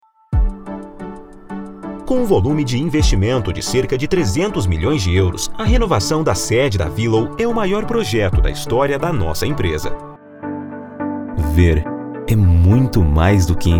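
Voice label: Portuguese, Brazilian, male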